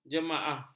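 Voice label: "jemaah", Malay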